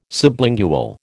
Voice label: "sublingual", American

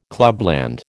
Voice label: "clubland", American

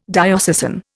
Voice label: "diocesan", Indian

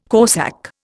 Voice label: "corsak", Indian

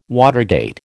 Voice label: "watergate", American

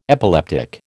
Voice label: "epileptic", American

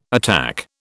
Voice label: "attack", British

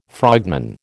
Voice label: "frogman", American

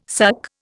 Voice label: "suck", Indian

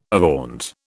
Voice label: "avaunt", British

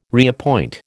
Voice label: "reappoint", American

